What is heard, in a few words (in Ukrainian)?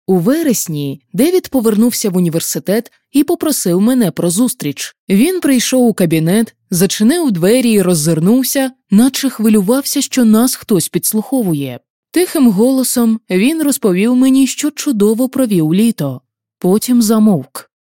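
Ukrainian, Narration; GoLocalise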